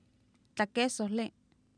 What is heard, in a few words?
"tlakEesohlI", Oapan, female; Oapan minimal pairs 1998